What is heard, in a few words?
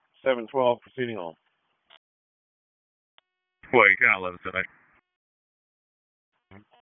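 Sheriff's Office Dispatch 1, p25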